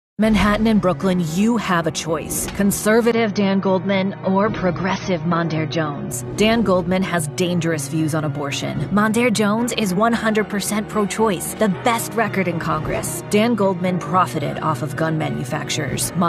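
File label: Democrat Voiceover, Female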